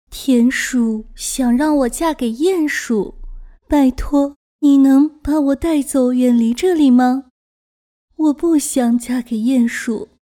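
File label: chinese mandarin female character; voice demos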